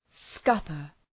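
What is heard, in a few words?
"scupper", English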